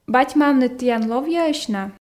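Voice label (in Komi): бать-мамныд тіян ловъяӧсь на, Komi, female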